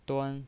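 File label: "duan" Mandarin Chinese, first tone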